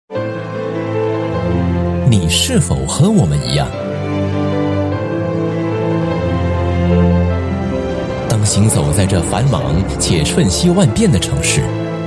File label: Mandarin Teaser; Hellow Singapore